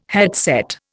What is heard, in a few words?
"headset", Indian